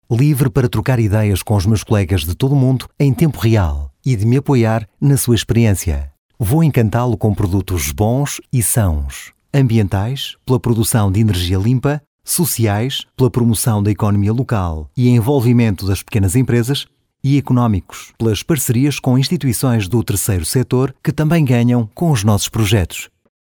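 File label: Portuguese, male, e-learning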